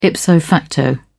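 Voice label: "ipso facto", English, British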